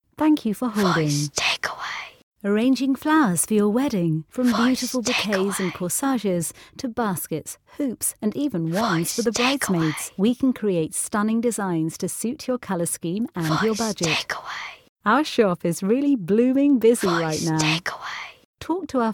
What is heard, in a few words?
Florists Rich Female